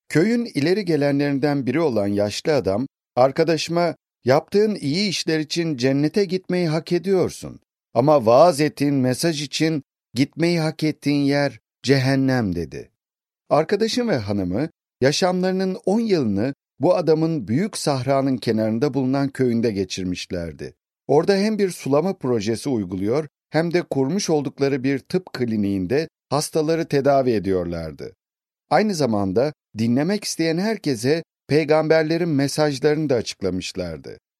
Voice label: one god one message turkish; audio book; tur turkish audio bible

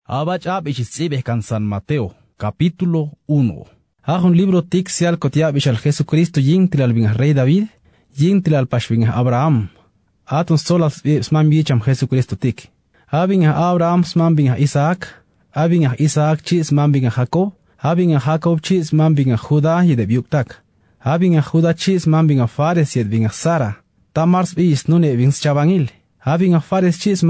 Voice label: chuj, new testament, audio bible; cac chuj; chaj

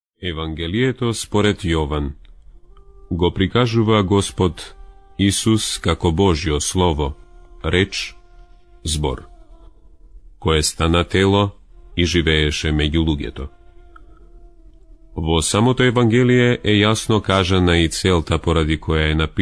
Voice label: macedonian, new testament dramatized, audio bible; mkd macedonian

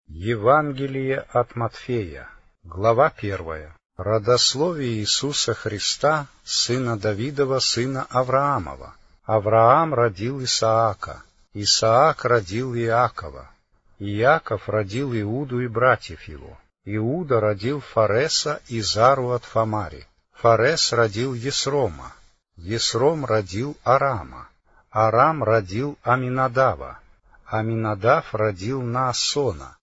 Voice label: russian, new testament psalms and proverbs; rus russian audio bible

